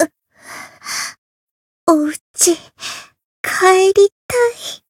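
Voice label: Voice win barely Japanese; sincere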